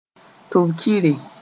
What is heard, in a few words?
"tʋb-kɩre", moore; temple